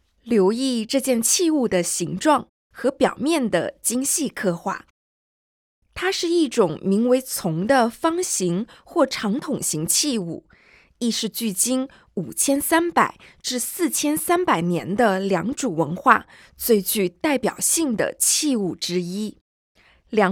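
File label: Mandarin, audio guide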